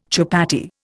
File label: "chupatty", Indian